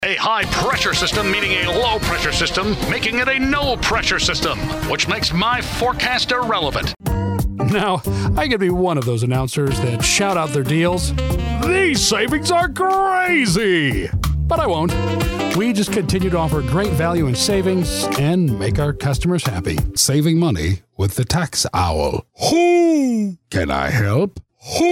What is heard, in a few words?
character